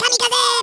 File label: "kamikaze", Norwegian; Worms Armageddon